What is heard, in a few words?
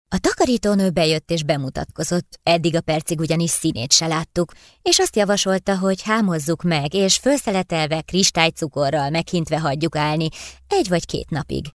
hungarian female